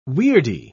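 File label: "weirdie", British English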